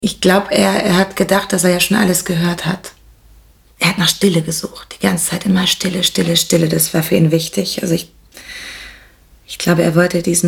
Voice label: Mockumentary Hoerspiel; WDR; LOFT VOICES

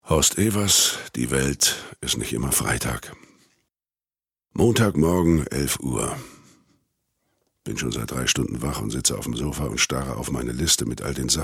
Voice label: erzaehlung

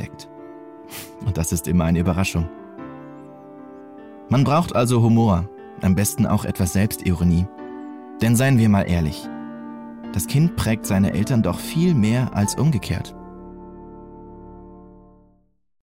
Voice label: Sprach Demo Kinder

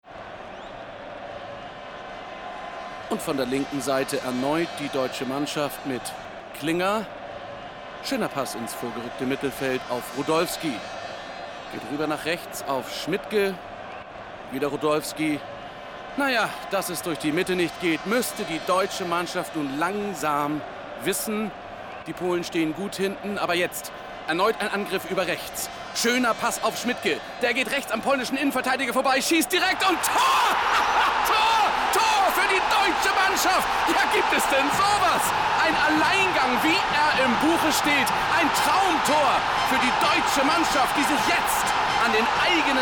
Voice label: sportkommentar

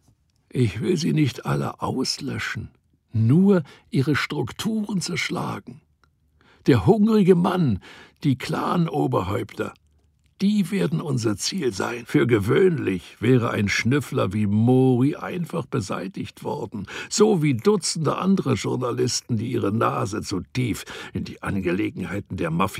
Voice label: hoerspiel; arkadien

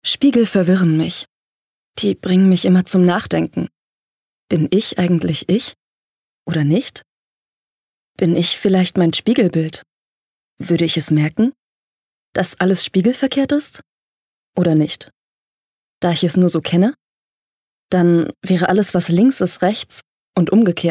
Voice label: Innerer Monolog

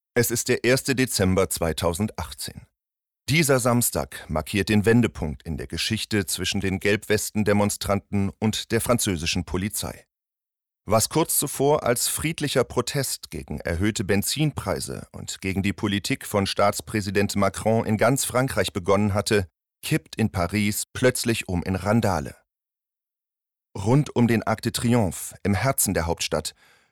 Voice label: Doku ernst

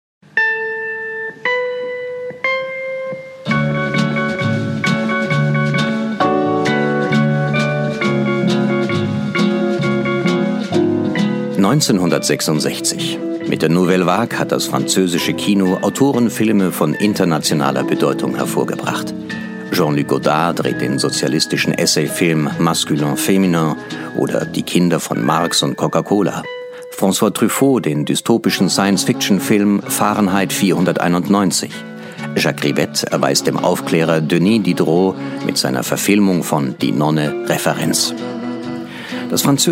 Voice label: Kommentar Radiofeature; Lelouche

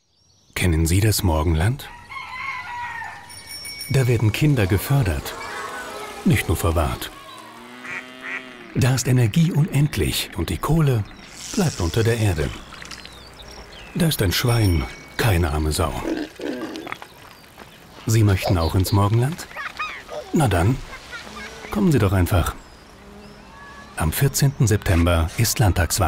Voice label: werbung; morgenland

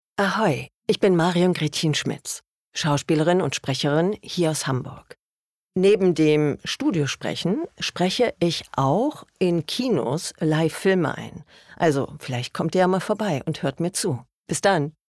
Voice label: Vorstellung, German

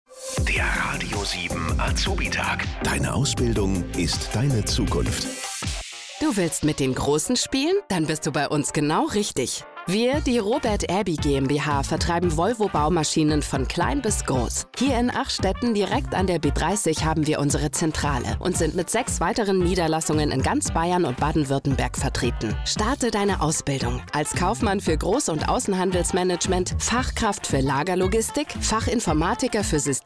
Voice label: Hoerprobe Radio

